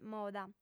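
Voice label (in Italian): "moda", lingua sarda, fem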